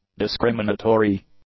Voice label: "discriminatory", English; adjectives

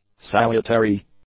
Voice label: "salutary", English; adjectives

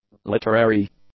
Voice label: "literary", english; adjectives

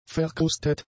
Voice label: "verkrustet", German; adjectives

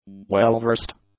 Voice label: "well-versed", english; adjectives

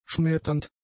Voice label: "schmetternd", German; adjectives

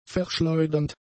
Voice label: "verschleudernd", German; adjectives

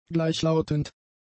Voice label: "gleichlautend", German; adjectives

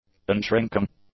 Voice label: "unshrinking", english; adjectives

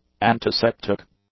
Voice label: "antiseptic", English; adjectives

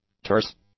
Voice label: "terse", English; adjectives

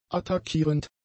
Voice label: "attackierend", German; adjectives

